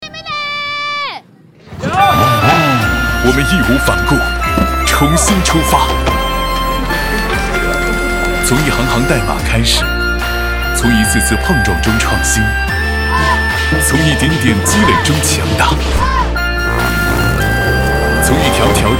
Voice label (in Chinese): 男 宣传片; 华为最新宣传片; 以行践言